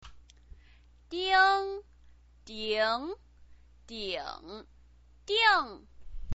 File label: "ding", chinese pinyin